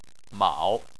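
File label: "mao", Chinese, third tone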